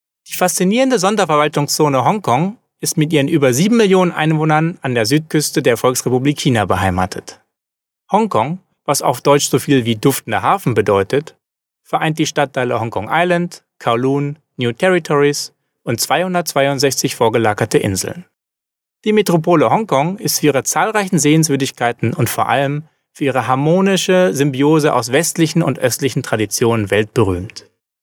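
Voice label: German male corporate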